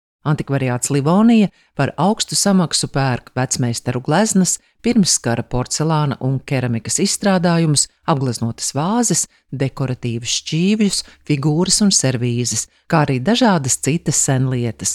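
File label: Latvian, female, announcements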